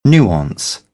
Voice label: "nuance", British; AmericanBritish